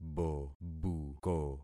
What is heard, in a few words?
"bobuko", concat syllables; Affixing experiment